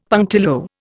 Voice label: "punctilio", Indian; Dictionary

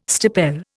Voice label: "stipel", Indian

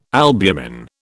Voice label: "albumen", British

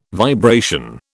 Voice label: "vibration", British

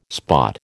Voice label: "spot", American

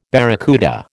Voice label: "barracuda", American

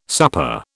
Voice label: "supper", British

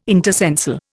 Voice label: "intercensal", Indian

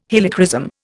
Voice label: "Helichrysum", Indian

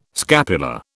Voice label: "scapula", British

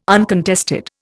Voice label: "uncontested", Indian

